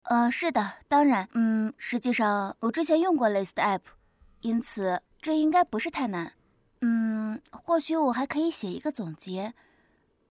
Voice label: 译制片人物

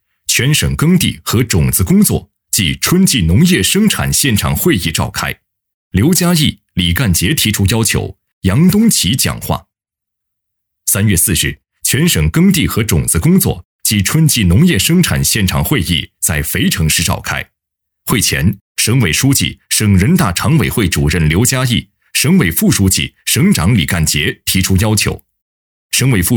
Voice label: 新闻; 声音特点大气浑厚且富有磁性

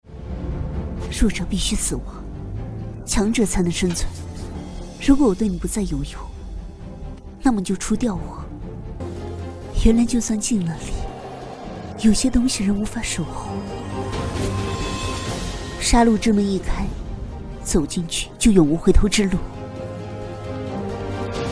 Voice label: 武侠风御姐

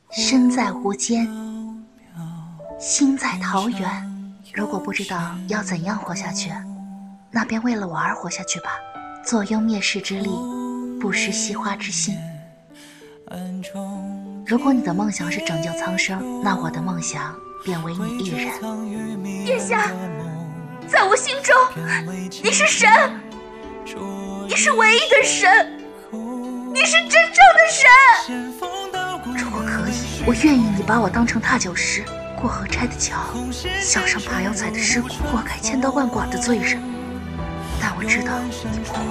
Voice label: 老阿姨; 天官赐福语录

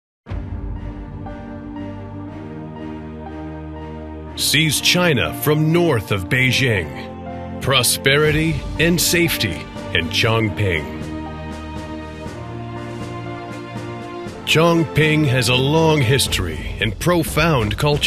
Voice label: 外籍美式英语大气浑厚

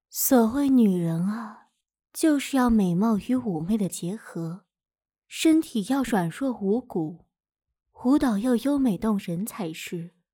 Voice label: 御姐音

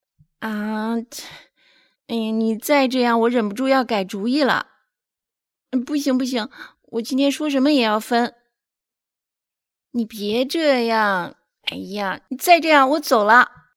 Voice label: 少女音

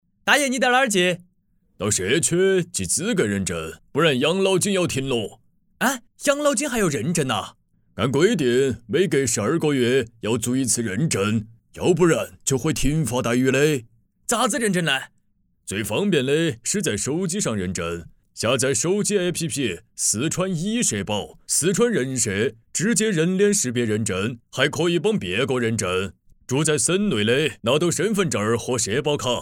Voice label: 自贡话; 社保资格认证

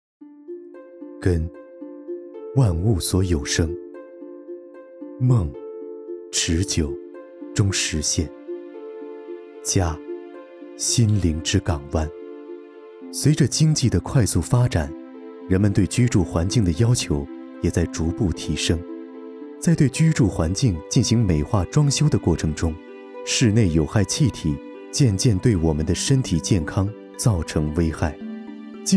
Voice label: 广告

